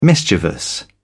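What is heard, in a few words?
"mischievous", British English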